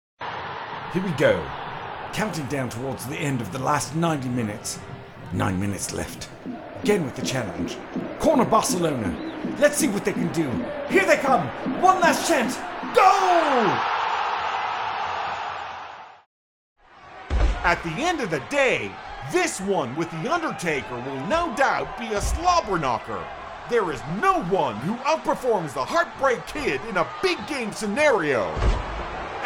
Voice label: Video Games, Voice Work